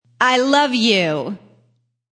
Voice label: Fem Love You Strng, Woman says I Love You in a strong voice.; Talking